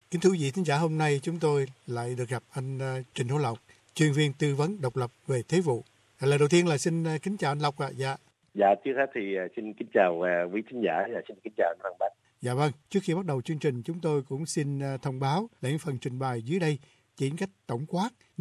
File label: vietnamese